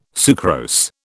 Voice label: "sucrose", British